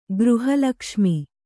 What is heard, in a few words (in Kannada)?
"ಗೃಹಲಕ್ಷ್ಮಿ", Kannada